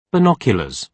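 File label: "binoculars", British English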